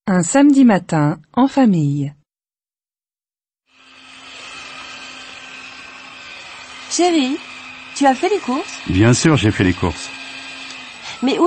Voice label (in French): Grammaire en dialogues Niveau débutant